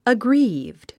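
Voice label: "aggrieved", English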